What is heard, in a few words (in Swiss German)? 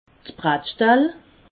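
"brattschtall", Alsatian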